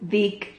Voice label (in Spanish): "dic", Silabas